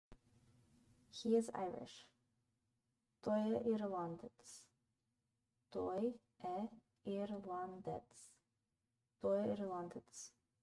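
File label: "He is Irish", Bulgarian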